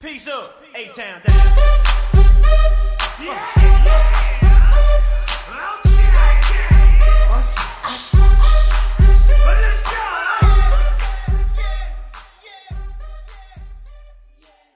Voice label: yeah bass boosted

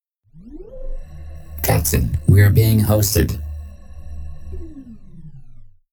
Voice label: captain we are being hosted male spark grateful; Caphost

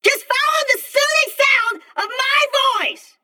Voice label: soothing voice; claptrap

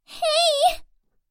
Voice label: anime girl hey

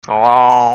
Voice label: weird hello; daylight

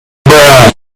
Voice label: Bruh EARRAPE

